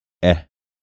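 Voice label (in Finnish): "ä", Finnish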